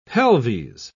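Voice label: "pelves", English, British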